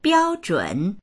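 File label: "biao1zhun3", Chinese; 标准; 標準; dictionary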